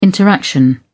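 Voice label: "interaction", British English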